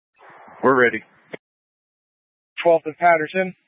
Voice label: Tactical Incidents, scanner